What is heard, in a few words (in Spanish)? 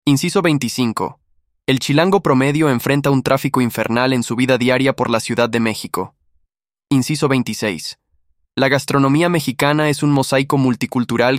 dictado, spanish; spanish test level c2